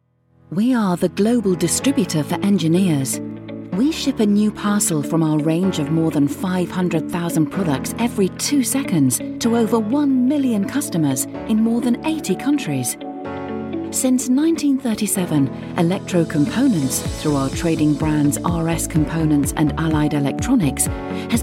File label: company video